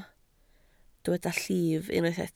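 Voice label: "llif" Welsh, spoken word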